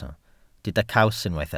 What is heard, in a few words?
"caws", welsh